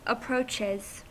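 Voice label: "approaches", US English; pronunciations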